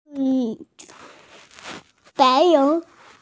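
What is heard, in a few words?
"freedom", Mandarin, hearing-impaired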